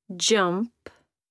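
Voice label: "jump", English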